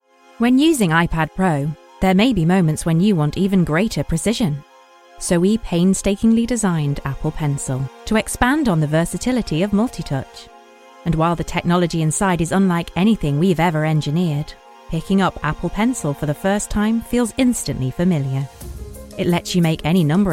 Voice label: Commercial Montage